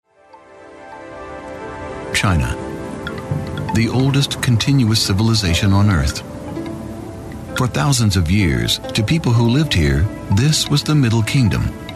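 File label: US English, male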